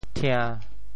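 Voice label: "thia~1", Teochew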